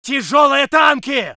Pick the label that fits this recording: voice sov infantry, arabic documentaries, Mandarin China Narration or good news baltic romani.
voice sov infantry